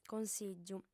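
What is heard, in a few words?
"consìgiu", female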